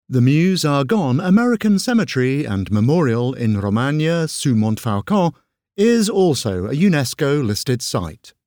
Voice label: voiceover audioguide; french ww1; audioguides